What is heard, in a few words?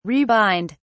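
"rebind", British English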